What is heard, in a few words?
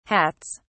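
"hats", English, uk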